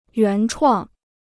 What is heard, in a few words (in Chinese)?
"原创", Chinese